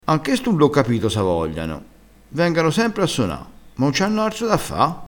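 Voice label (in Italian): "esta", vocabolario dialettale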